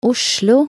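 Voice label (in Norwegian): "Oslo", Norwegian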